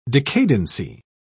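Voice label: "decadency", English, British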